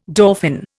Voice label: "dauphin", Indian